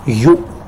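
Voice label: "house", Tlacolula Zapotec; yu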